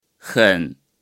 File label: "很", Chinese